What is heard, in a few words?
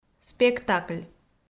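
"спектакль", Komi-Zyrian, female; audio dict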